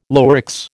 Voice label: "Lurex", American

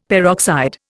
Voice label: "peroxide", Indian; Dictionary

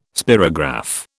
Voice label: "spirograph", British

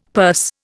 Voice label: "purse", Indian; Dictionary

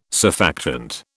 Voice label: "surfactant", British

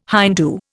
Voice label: "Hindoo", Indian

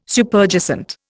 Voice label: "Superjacent", Indian

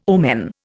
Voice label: "omen", Indian

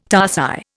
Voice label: "tarsi", Indian

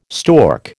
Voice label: "stork", American

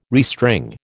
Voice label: "restring", American

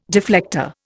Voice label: "deflector", Indian

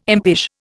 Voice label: "impish", Indian

